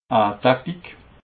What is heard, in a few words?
"taktik", alsace